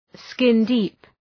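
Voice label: "skin-deep", English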